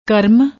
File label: "krm", Punjabi